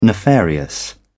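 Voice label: "nefarious", British English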